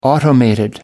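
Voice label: "automated", English, British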